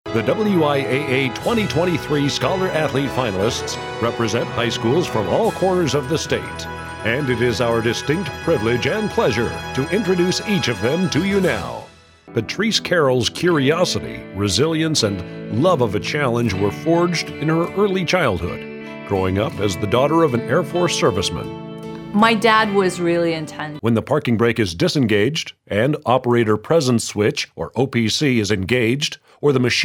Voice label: narration; The Bridge Recording Studio LLC.; Green Bay, WI; VO Demo